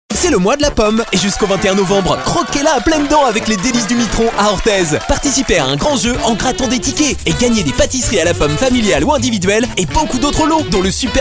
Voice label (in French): radio pub spot